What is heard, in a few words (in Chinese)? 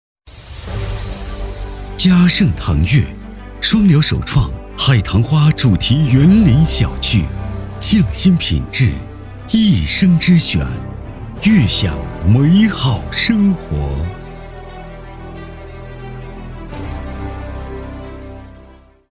男 广告 房地产品质广告